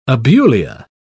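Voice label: "abulia", English, British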